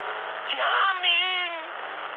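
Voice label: German aircraft destroyed mood high, speech; War Thunder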